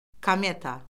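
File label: "kometa", Azerbaijani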